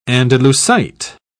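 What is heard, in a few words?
"andalusite", English, British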